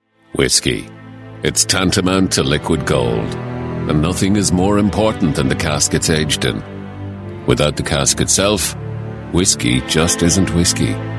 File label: corporate